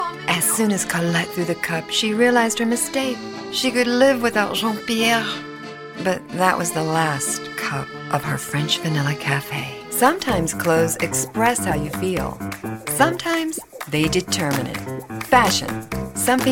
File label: English Voice Over